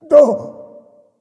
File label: surprise; Smite; cabrakan